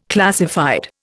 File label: "classified", Indian